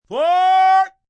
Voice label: "Fore", Calls, caution, male; Talking

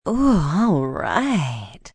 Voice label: Oo Alright, Sexy, fun, female, sexy; Talking Sound Effects

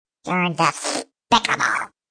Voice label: Youre Descpicable, Attitude, Male

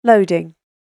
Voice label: "Loading" British Female Voice; Recorded Voice Sound Effects; spoken word

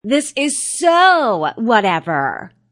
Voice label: Young Lady Says This is So Whatever; Talking

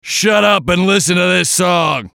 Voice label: VOICE COMICAL; Funny Sound; Radio Voice; Blastwave

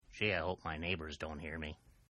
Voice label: Man Says Gee Neighbors, Exclamations; Voice; Partners In Rhyme

